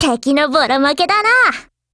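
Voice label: win Japanese; XM8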